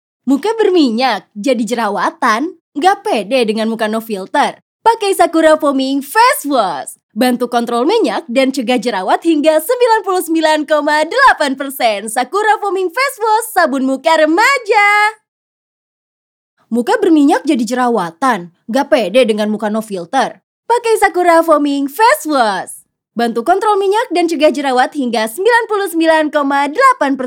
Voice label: CASUAL